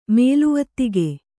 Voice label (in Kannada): "ಮೇಲುವತ್ತಿಗೆ", Kannada